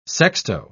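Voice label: "sexto", British English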